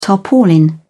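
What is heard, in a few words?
"tarpaulin", British English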